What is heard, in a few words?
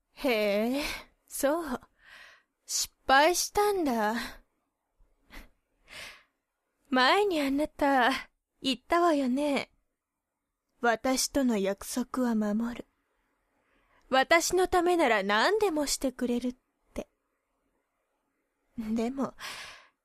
woman; 大人; お偉いお姉さん